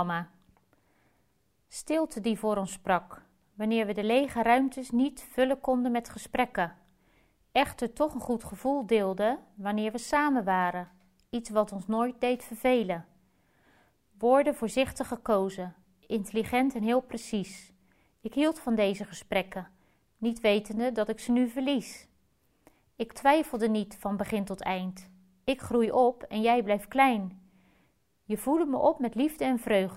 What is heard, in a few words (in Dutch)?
gedicht voorgelezen